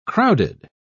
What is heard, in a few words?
"crowded", pronounce